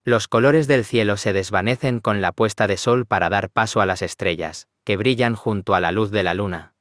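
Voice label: Spanish, Spain; iOS Sounds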